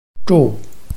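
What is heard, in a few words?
"zhu4", Mandarin Chinese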